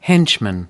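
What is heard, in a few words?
"henchman", American English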